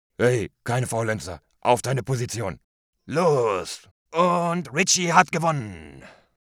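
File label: german male character; voice demos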